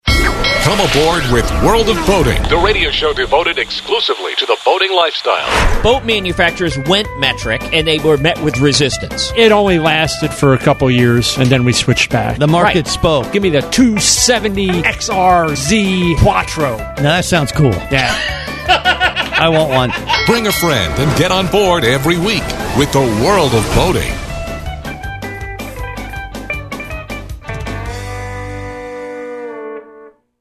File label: Promo